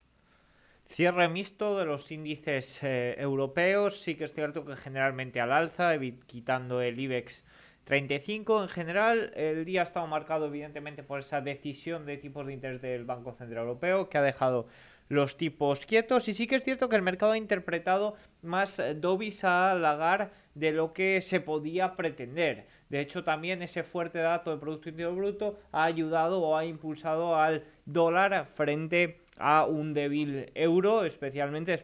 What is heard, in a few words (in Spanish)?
radio; movimiento inusual en estados unidos a la espera de la subasta